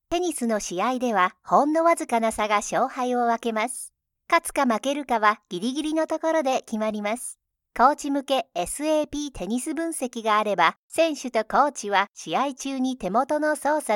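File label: Japanese narration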